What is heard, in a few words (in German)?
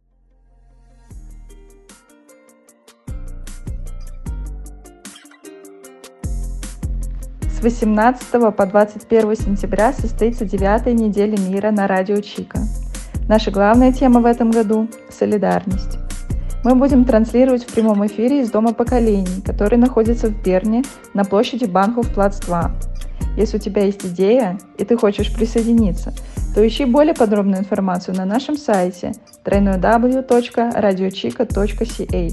Jingle Russisch